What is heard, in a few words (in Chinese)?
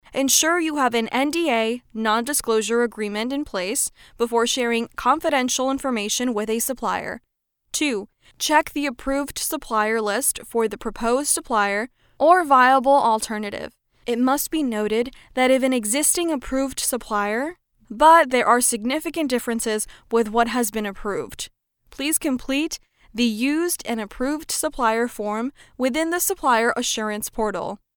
外籍女英 外语 美式英语